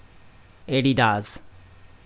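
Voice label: Nepalese, male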